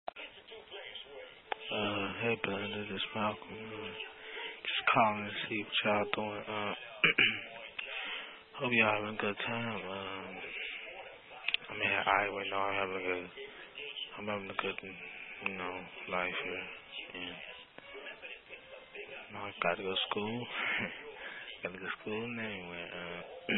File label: voicemail